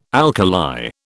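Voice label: "alkali", British English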